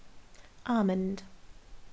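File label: "almond", British female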